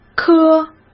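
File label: "颗", Mandarin Chinese; chinese flashcards; hsk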